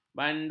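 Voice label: "banduk", Malay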